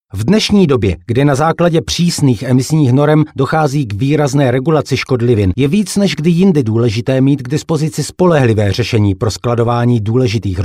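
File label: Czech M eLearning, male; voice over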